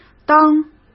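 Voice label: "当", Mandarin Chinese; hsk; chinese-flashcards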